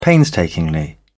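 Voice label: "painstakingly", British English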